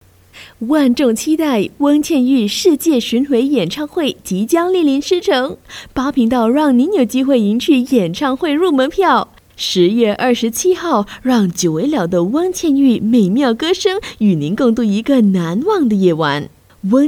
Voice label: Mandarin TVC, China Female